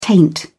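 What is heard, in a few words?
"taint", British English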